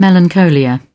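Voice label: "meek", British English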